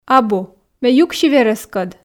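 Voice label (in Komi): "абу ме юкси верӧскӧд", female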